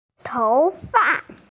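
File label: "toufa", Mandarin Chinese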